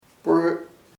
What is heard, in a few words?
"tree árbol", chamacoco